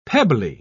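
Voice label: "pebbly", British English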